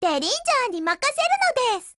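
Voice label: BLACK ACTION Japanese, Voice; GF Alarm; Derringer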